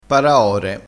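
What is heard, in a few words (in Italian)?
"paraore", dialettale crespinese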